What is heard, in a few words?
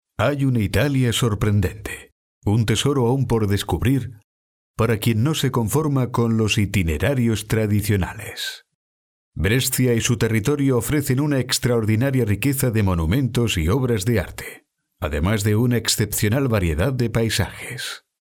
euro spanish tourist guide; brescia